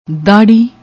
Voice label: "darri", Punjabi; ਦਾੜ੍ਹੀ

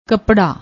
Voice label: "kaprra", Punjabi; ਕੱਪੜਾ

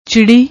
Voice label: "chidhi", Punjabi

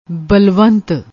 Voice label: "balwant", Punjabi

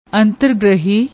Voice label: "anter grahi", Punjabi; ਅੰਤਰਗ੍ਰਹੀ

